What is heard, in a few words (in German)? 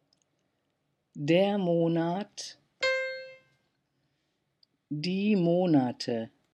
"Monat", german